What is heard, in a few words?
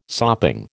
"sopping", American